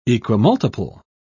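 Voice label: "equimultiple", British English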